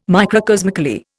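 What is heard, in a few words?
"microcosmically", English, Indian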